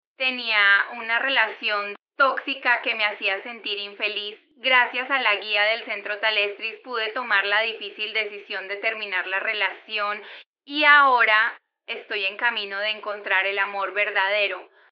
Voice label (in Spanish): Mujer